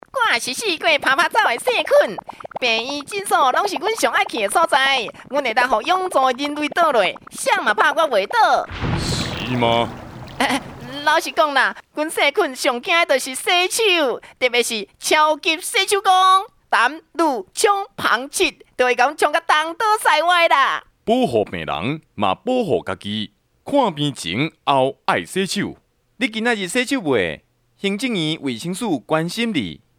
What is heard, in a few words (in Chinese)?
洗手戲劇 台語版